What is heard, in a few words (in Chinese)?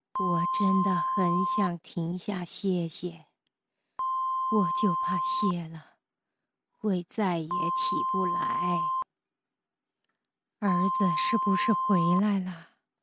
角色模仿 老太太模仿